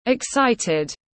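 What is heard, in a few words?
"Excited", English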